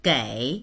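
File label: "gei3", Mandarin Chinese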